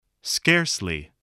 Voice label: "scarcely", english spelling